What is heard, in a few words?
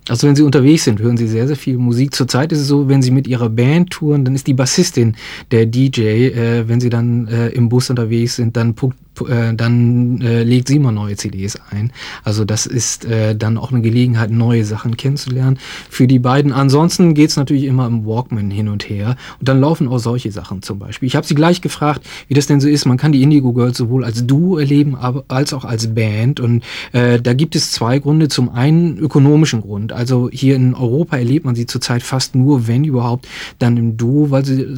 german translation